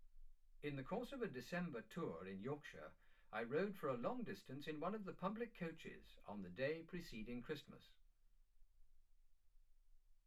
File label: male speech english